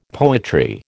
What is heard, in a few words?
"poetry", American